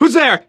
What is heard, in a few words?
radio initial broadcast